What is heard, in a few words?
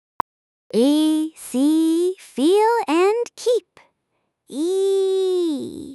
vowel i female